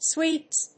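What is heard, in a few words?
"Sweeps", English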